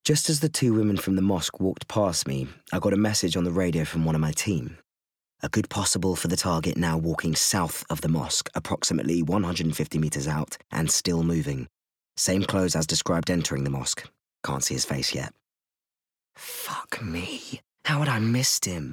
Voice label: Bookread

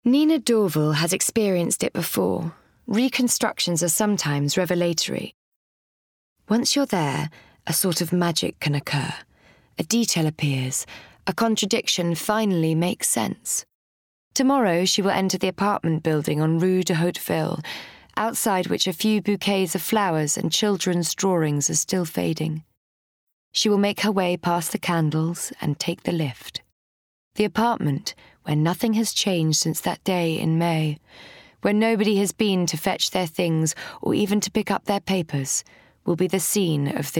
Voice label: Lullaby Bookread; Lullaby by Leila Slimani

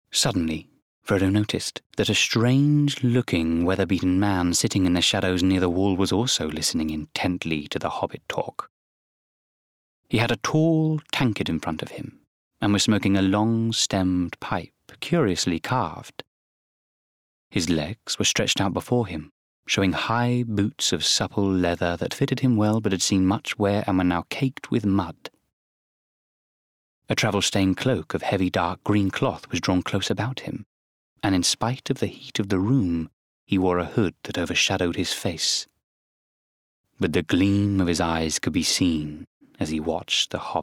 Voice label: Book Read; Lord Of The Rings

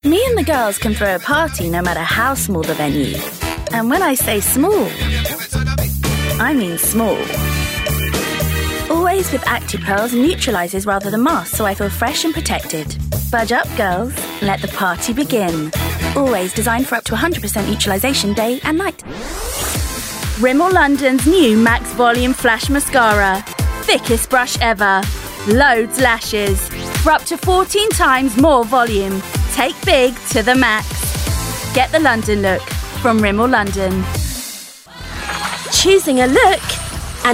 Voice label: UK Commercials

